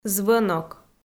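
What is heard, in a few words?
"звӧнок", Komi, female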